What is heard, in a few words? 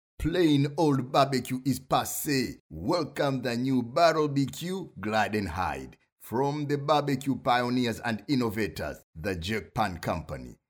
caribbean comm